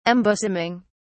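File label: "embosoming", British English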